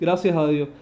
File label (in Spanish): gracias a Dios, cu ha; Kuba; Havanna